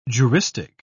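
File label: "juristic", British English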